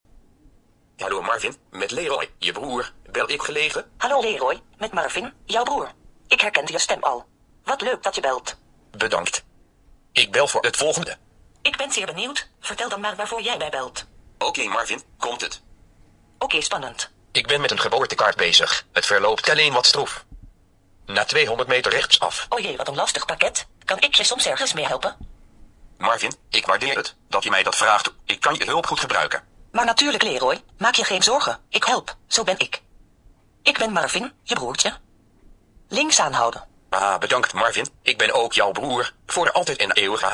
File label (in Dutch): telefoongesprek